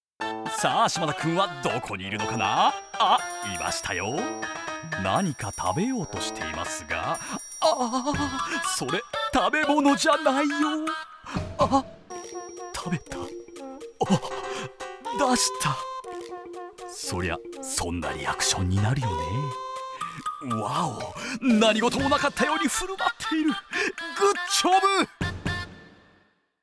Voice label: narration